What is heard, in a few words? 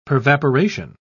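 "pervaporation", British English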